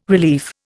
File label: "relief", Indian